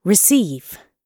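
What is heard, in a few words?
"receive", British English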